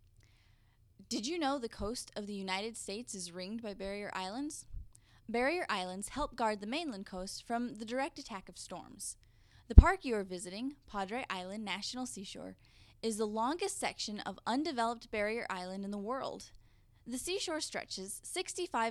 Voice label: Conversational